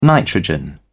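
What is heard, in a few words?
"nitrogen", British English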